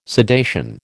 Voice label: "sedation", American